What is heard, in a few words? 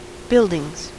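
"buildings", US English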